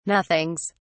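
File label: "nothings", British English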